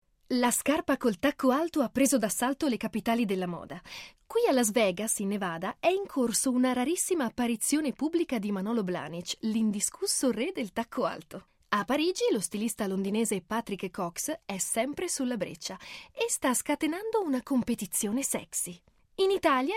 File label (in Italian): documentario